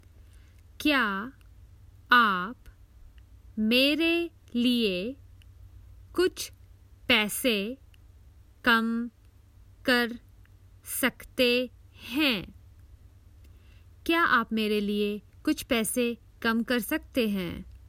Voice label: Can you give me a discount, Common Hindi Phrases for travel